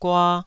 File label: "gua2", taiwanese